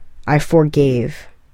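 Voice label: "I forgave", English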